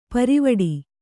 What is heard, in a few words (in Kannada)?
"ಪಾರಿವಟ್ಟೆ", Kannada